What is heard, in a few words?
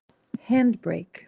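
"handbrake", English; useful words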